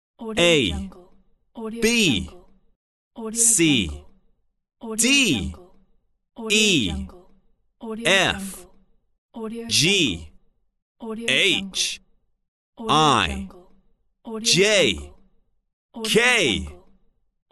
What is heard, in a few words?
the alphabet voice pack male